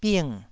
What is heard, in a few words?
"bing4", taiwanese syllable